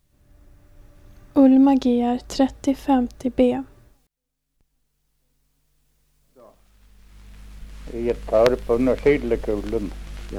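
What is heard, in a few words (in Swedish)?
Rinna Östergötland, dialekter; Folkminnen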